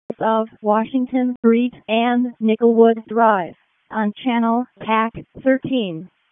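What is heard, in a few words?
Raleigh Fire Department ALERT, p25; wake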